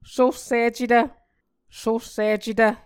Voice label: "sossicetv", Muscogee